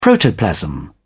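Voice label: "protoplasm", British English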